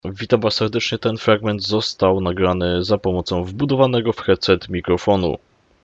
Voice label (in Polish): mikrofon